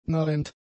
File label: "narrend", German; adjectives